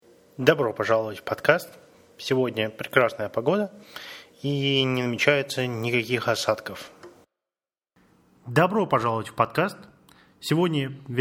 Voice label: old Vs New Mic